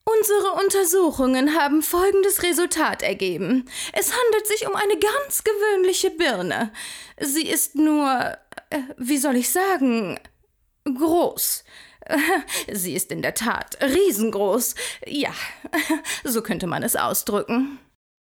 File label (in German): Trickstimme Riesenbirne